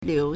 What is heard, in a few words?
"liu1", Chinese